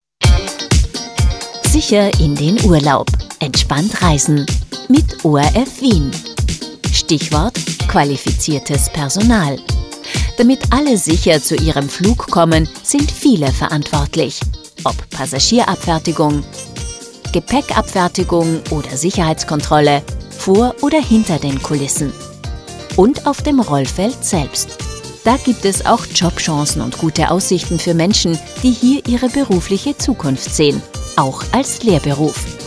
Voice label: Sprecherdemo Flughafen; ORF W

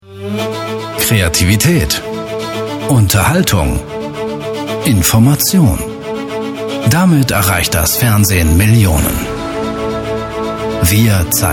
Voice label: Event Opener Stager; Screen Force Days; LOFT VOICES

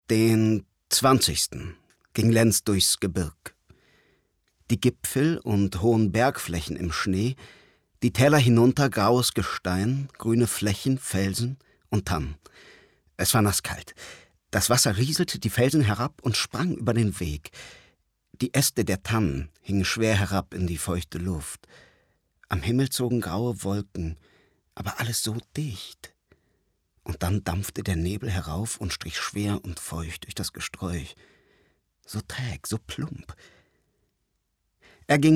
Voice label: Erzaehlung; Georg Buechner; LENZ; LOFT VOICES

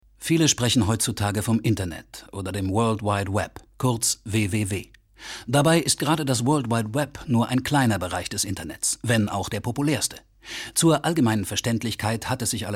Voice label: kommentar

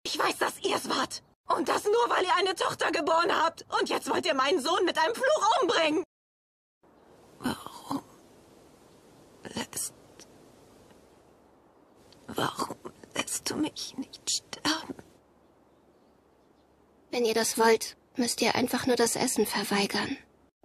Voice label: Synchron - Trickfilm - herrschaftlich, facettenreich, German; Lip-Sync (Synchron); voice

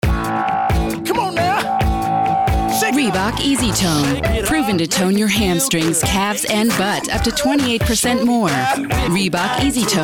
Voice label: us englisch

